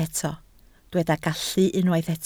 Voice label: "gallu", Welsh